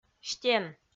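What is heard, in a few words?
"стен", Komi-Zyrian, female; audio dict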